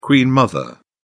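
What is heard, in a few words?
"queen mother", British English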